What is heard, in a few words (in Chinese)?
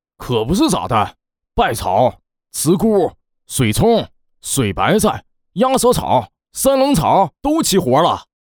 东北方言 男 东北话 方言样音; 方言配音